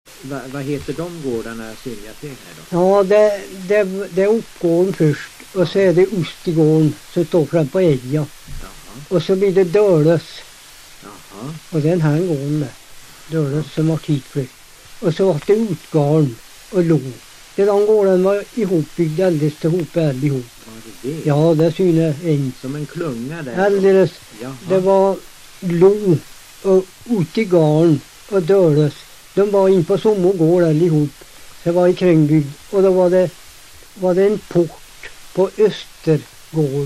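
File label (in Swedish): Hede Härjedalen, dialekter, Svenska sagor; Folkminnen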